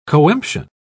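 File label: "coemption", English, British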